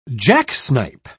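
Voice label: "jacksnipe", British English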